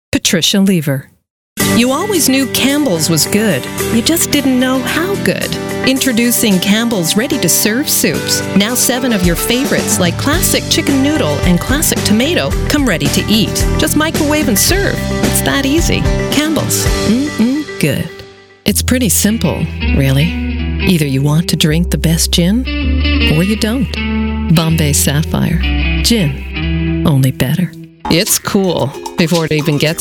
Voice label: commercial